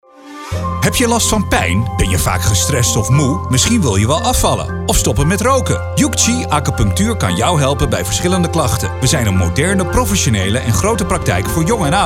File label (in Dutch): Radiospot; WildFM